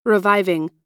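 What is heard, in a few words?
"reviving", American English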